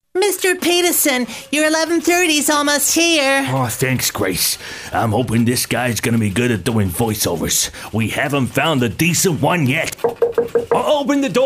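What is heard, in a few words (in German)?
British English, Schauspiel Variabel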